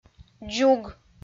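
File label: "дзуг", Komi, female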